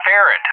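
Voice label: "Ferret", English; JTAC; Callsign; DCS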